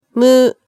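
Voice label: "mu", Japanese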